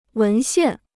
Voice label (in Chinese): "文献", Chinese